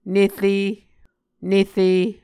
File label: "nere", Muscogee